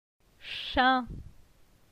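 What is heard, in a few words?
"Chin", French; phonetique; la syllabe in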